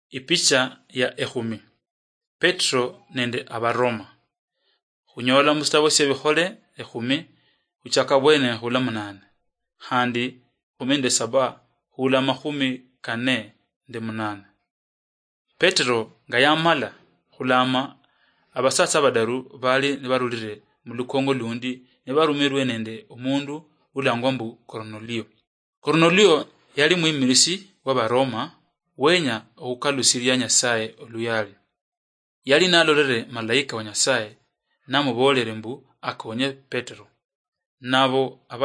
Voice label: acts of the holy spirit luyia marachi, drama; oluluyia; bible